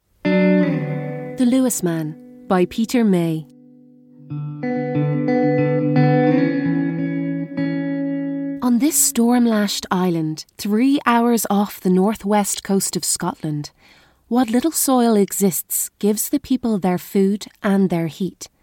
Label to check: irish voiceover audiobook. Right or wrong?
Right